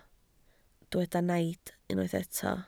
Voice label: "naid", Welsh